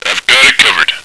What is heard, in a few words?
guard, radio, male